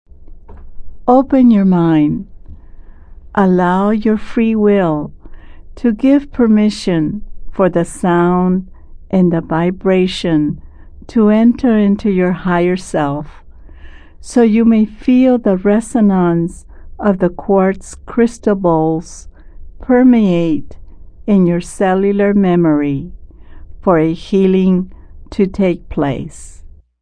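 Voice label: w voice english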